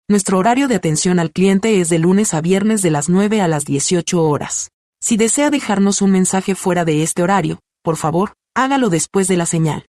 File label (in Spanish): Voz mujer con I.A., Locución en español latino y tono natural; Español neutro (Latino); Paloma